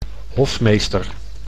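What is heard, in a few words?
"hofmeester", Dutch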